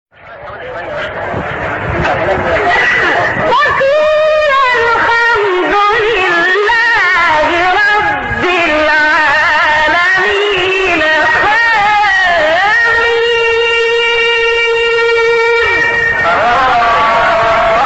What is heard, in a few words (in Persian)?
فراز زیبایی از سوره غافر